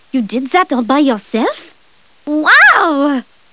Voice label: cheer; maeve